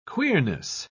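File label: "queerness", British English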